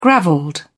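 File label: "gravelled", British English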